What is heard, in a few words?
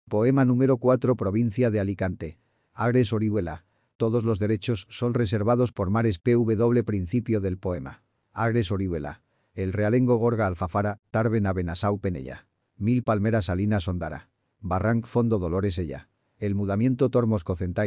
es male